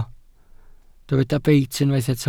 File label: "beit", Welsh